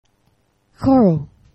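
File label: "ĥoro", Esperanto; geneva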